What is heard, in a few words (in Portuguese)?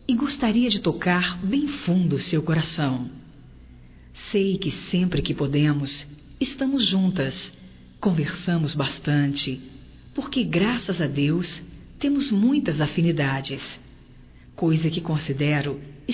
MADRINHA, Telemensagens, Aniversarios, fem; Telemensagens 2017